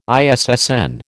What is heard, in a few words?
"ISSN", American